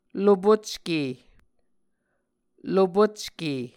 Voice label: "lopocke", Muscogee

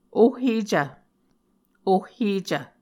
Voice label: "ohhecv", Muscogee; A Dictionary of Creek/Muskogee; SIL International

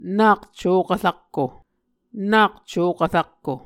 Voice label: "nak cokv rakko", Muscogee

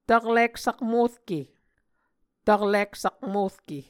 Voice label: "taklik-sakmorke", Muscogee

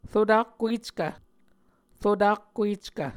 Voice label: "rotakkueckv", Muscogee; Mvskoke Words with Repeated Consonants; Martin, Jack B., and Margaret McKane Mauldin. 2023. A Dictionary of Creek/Muskogee

